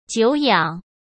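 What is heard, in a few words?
"久仰", Mandarin Chinese; chinese flashcards; hsk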